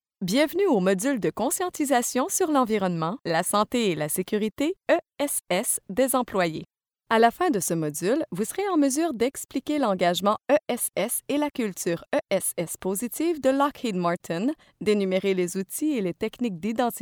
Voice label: e-learning

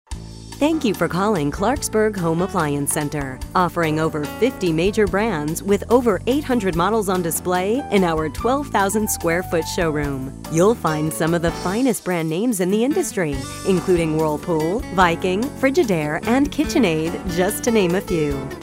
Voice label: ON HOLD

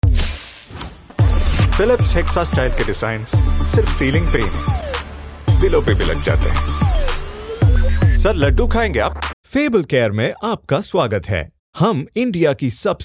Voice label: Hindi Indian

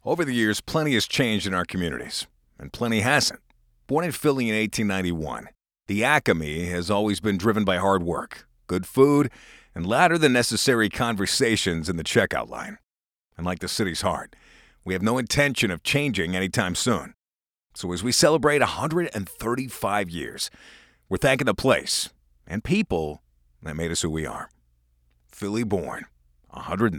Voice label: Steady Conversational East Coast Delivery

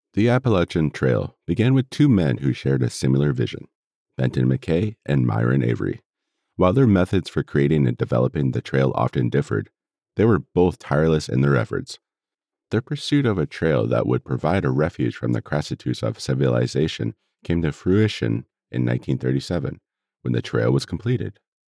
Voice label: Narration; Appalachian Trail

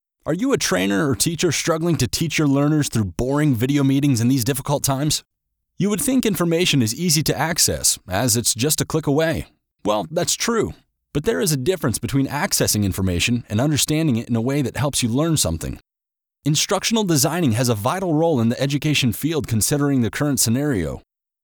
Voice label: Conversational

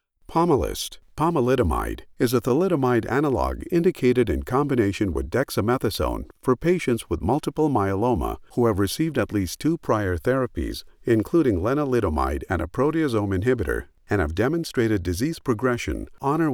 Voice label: Medical Narration

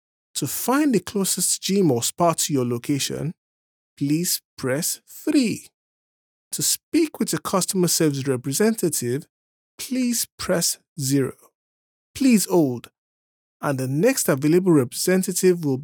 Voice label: health IVR, male voice over; Carnation

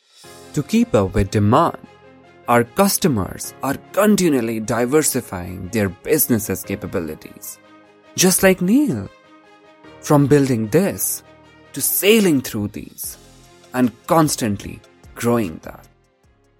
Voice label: Animation Explainer Video

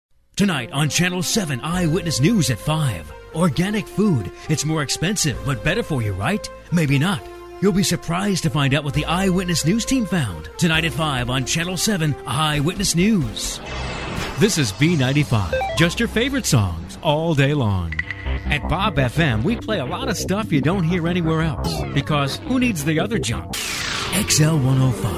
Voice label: Promos And Imaging

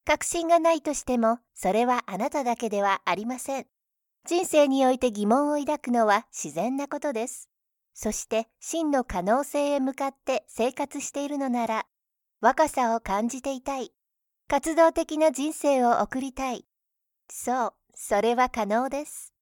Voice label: Commercial soft

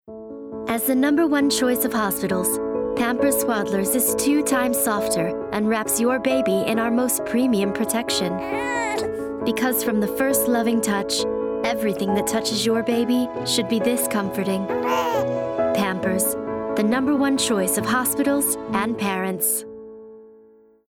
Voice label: warm relaxed smooth; Pampers

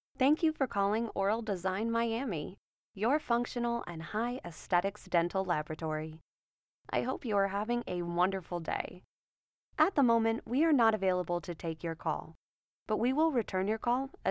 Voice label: Voicemail; Oral Design Miami

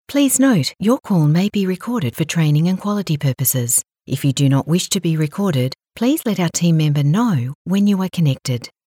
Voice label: On Hold IVR

